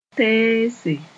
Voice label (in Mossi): "teese", moore